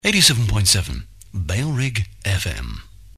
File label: male, no bed, jingles; 87.7 bailrigg fm; bfm jingles ads RSL 1994